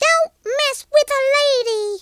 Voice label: revenge, Southern Belle; speech